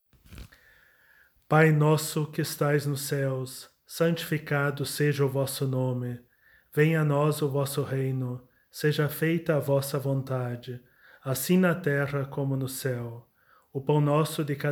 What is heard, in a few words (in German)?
Vaterunser portugiesisch; Vater unser auf portugiesisch; Familienimpuls